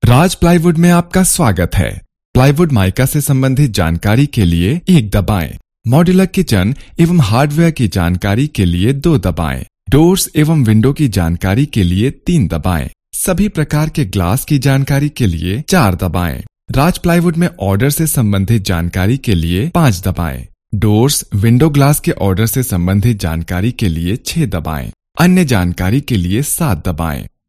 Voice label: IVRS, Voice-Over; Raj plywood